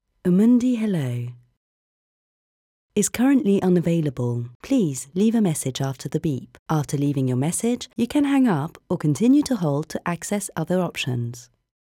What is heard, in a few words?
messagerie UK; Amundi